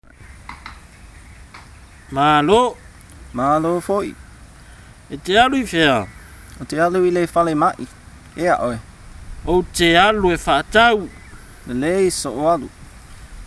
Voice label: samoan only; lesson 05; meeting and taking leave